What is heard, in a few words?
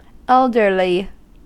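"elderly", US English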